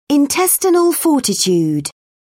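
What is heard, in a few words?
"intestinal fortitude", British English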